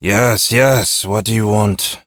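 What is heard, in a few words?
Ambient Male Sweeper, Dialog; Duviri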